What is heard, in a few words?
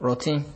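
"rotten", Nigerian Pidgin, male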